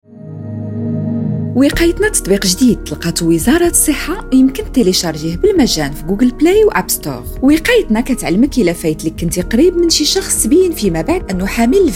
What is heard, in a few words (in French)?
Capsule radio; wiqaytna